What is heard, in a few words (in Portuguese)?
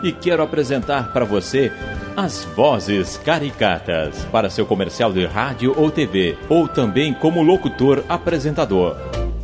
voz e musica